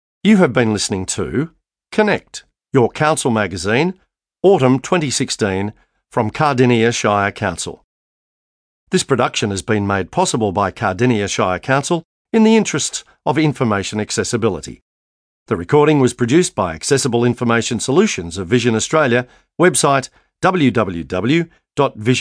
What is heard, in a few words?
closing announcement; connect autumn 2016